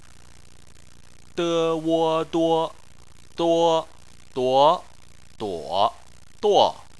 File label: "duo", chinese